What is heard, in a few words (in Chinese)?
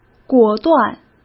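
"果断", Mandarin Chinese; chinese flashcards; hsk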